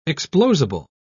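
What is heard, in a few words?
"explosible", English, British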